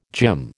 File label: "gym", American